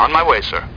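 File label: "on my way", spoken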